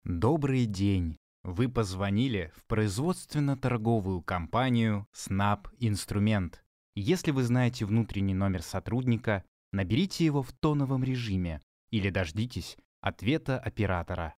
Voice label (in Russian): Avtootvetchik; SNABINSTRUMENT